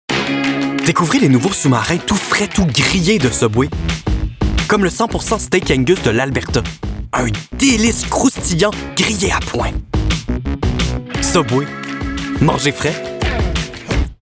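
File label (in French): pub ann énergique enthousiaste familière; Subway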